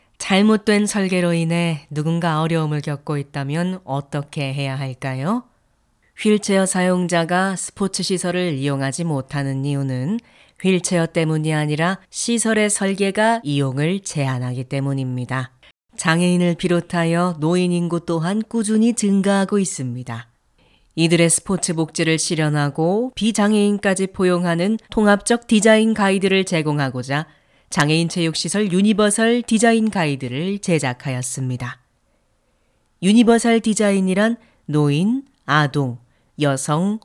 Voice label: 홍보 할머니 배우; 유니버설디자인

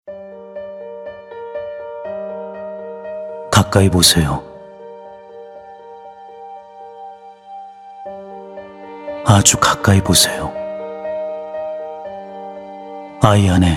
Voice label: 깊이감 진지함 감성 내레이션; 유니세프

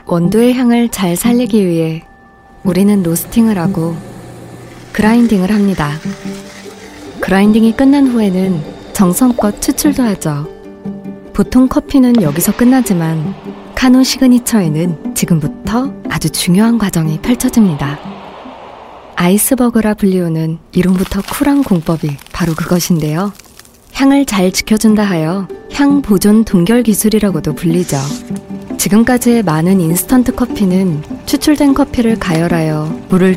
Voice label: 차분한 내레이터; 카누

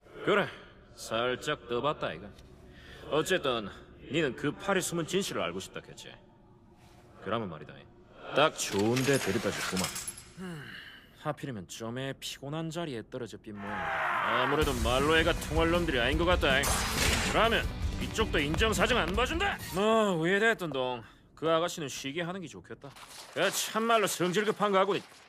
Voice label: 건방진 30; 던전 앤 파이터; 역전의 바퀴; 시란

